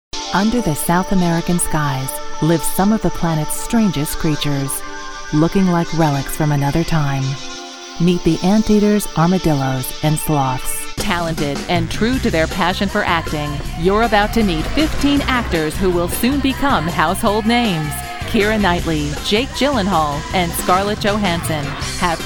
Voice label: narration